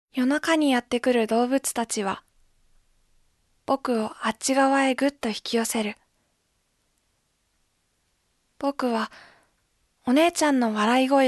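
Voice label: Voice Sample Narration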